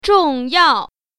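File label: "zhong4yao4", Mandarin Chinese Tone Pair Drills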